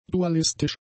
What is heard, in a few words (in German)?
"dualistisch", German; adjectives